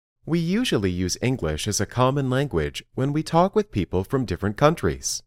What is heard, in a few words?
Dictation